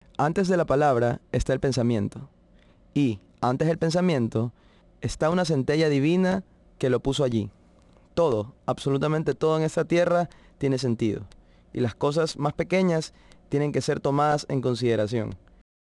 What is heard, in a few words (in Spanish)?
voz filtrada